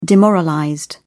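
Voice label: "demoralized", British English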